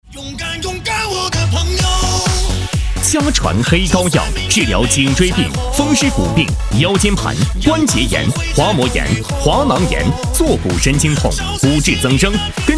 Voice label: 膏药骨病广告

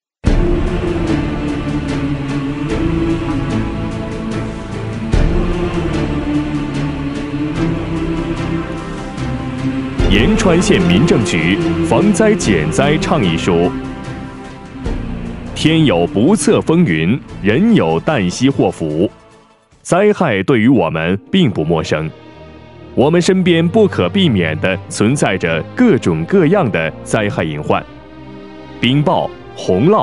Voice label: 带背景; 206防灾倡议书; 缩混

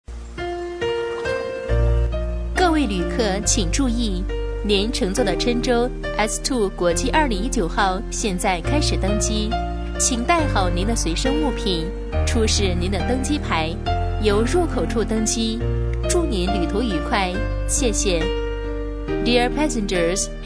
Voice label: 女声机场中英文播报 （温柔清新）

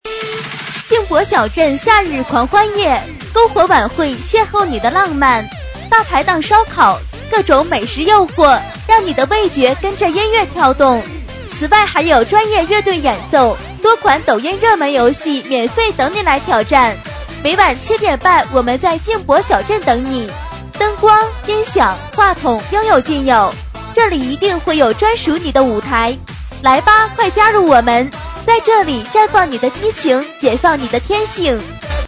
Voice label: 促销; 静泊小镇

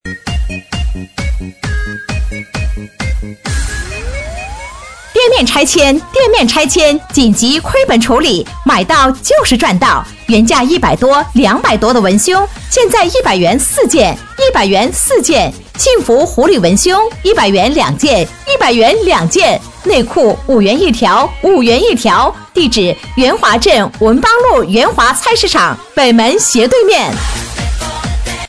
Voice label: 拆迁 促销

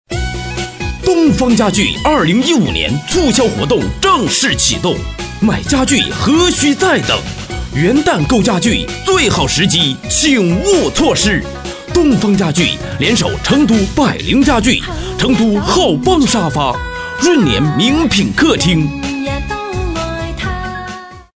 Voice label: 促销东方家具

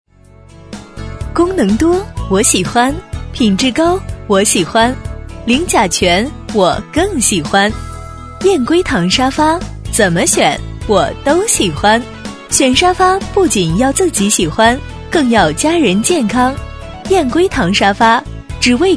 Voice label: 女声广告 沙发; 燕归堂

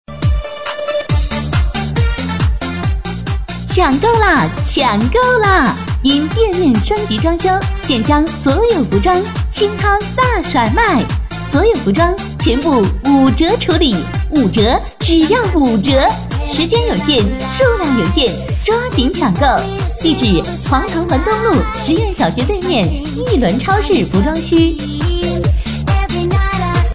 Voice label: 超市服装区 欢快

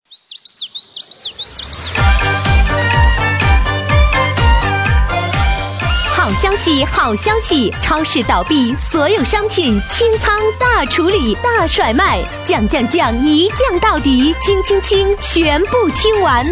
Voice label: 疯狂叫卖

